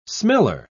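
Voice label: "smeller", English, British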